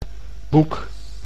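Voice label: "boek", Dutch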